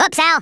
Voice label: "oops", US Sports

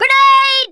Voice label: "grenade", Drill Sergeant; Worms Armageddon

